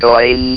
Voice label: "COINS", votrax; VIDEO GAMES